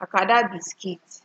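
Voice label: "acada biscuit", Nigerian Pidgin, female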